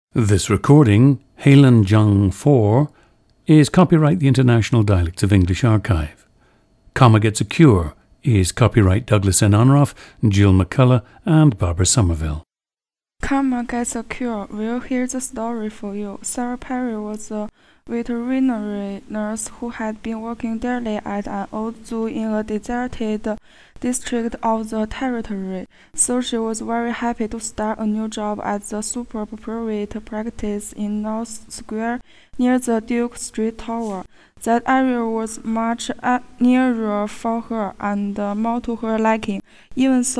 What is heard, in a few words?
heilongjiang